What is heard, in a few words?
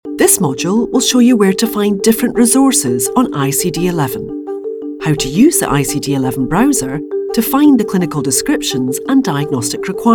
E learning